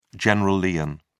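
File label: "general lien", British English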